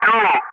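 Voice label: "3", loud, English; Ka-50; Wingman; DCS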